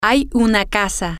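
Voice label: there is a house, Spanish, female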